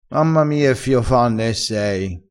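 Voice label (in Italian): "fio fanne", vocabolario dialettale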